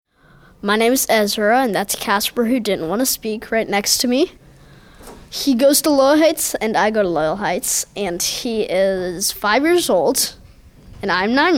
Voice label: Vox Pop; Gallery Workshop